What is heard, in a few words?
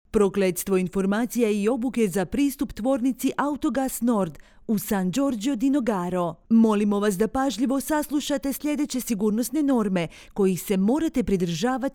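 Croatian VO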